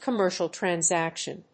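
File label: "Commercial Transaction", English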